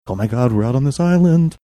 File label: saying oh my god were out on this island sing songy